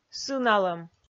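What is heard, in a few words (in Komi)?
"сыналӧм", Komi, female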